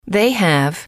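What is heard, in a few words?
they have, English, female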